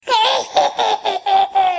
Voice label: evil baby